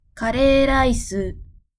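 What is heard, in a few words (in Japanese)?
"カレーライス", Japanese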